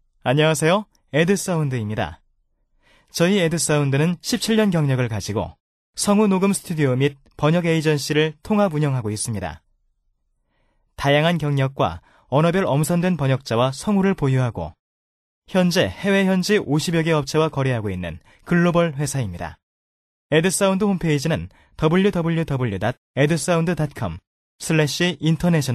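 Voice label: Korean, male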